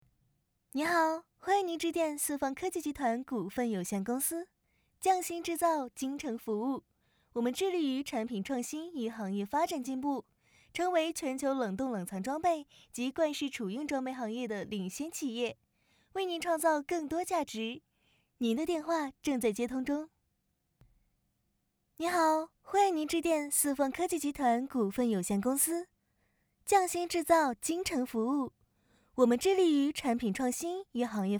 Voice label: 彩铃

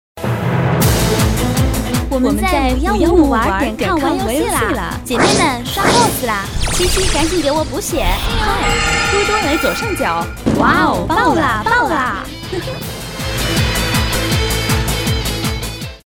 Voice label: 视频配音游戏宣传视频合成音

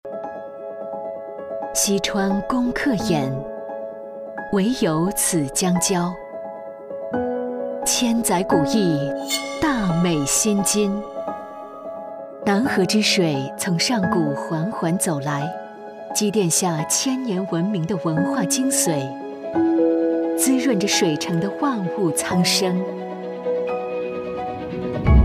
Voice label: 旁白 大气 文艺 新书发布会; 南河印象

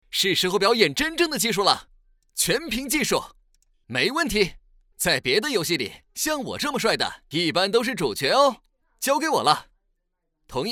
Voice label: 角色 配音; 伊泽瑞尔

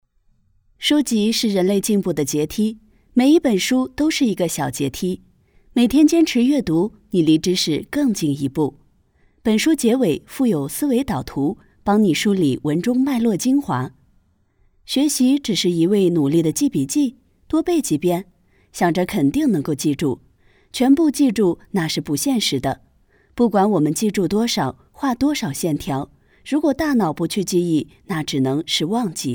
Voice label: 读书栏目

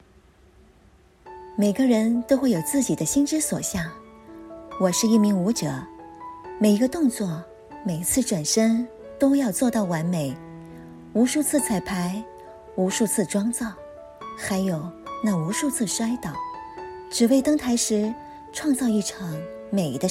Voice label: 舞者 宣传片 文艺

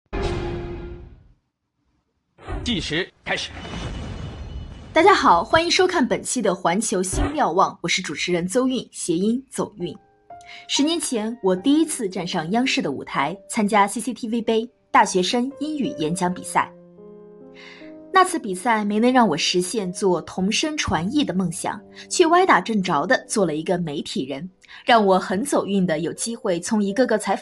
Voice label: 样音主持人大赛

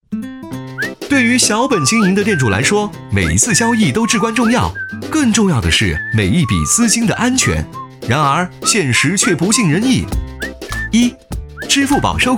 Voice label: 诙谐活力; MG支付宝

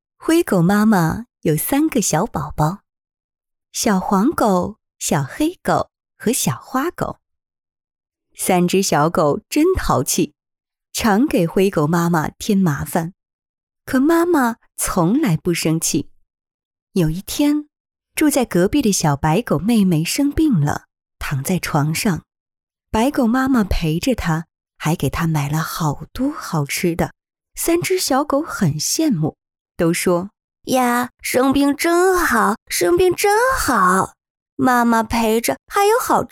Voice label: 儿童读物三只小狗干音

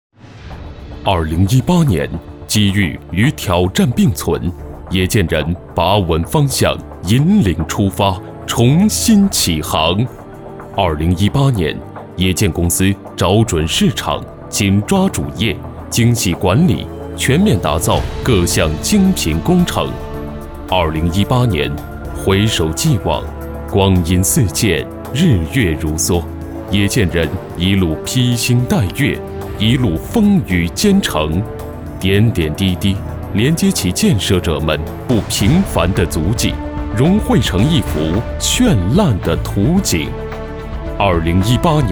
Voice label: 宣传片大气浑厚

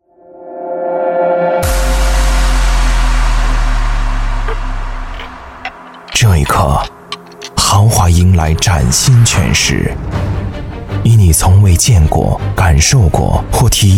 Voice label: 广告 汽车类; 林肯大陆

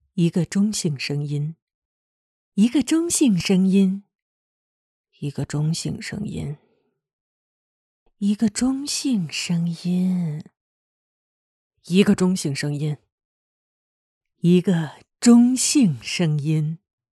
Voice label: 正常 喜悦 低落 撒娇 不满 抒情

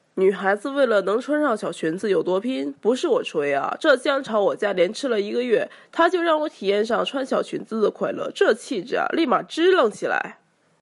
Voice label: 东北话试音

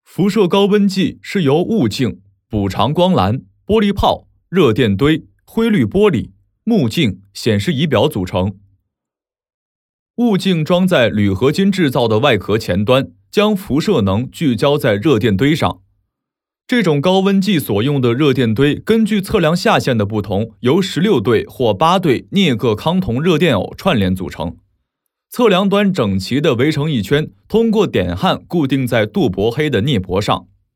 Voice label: 叙述

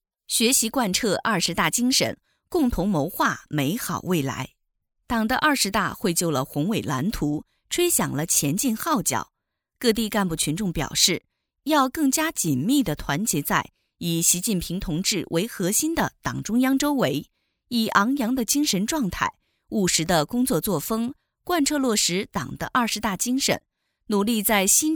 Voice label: 党政正式新闻

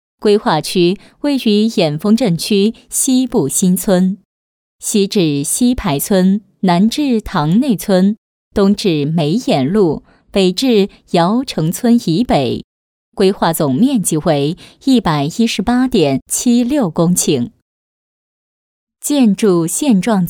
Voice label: 建筑讲述风格

